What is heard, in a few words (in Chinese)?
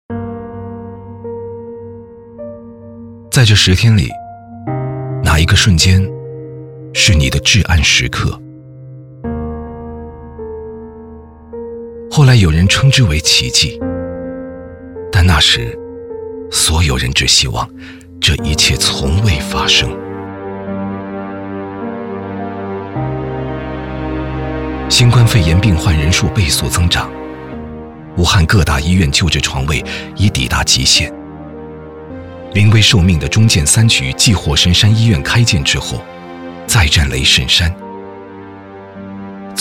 男 旁白 励志讲述; 雷神山